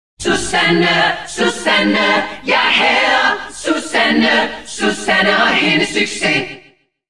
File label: Dansk